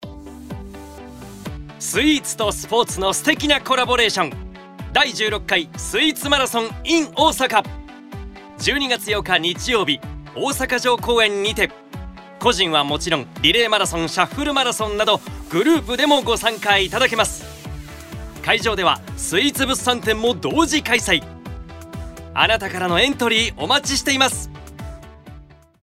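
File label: radio commercial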